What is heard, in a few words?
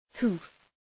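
"hoof", English; {hʋf}; Ουσιαστικό; χηλή; οπλή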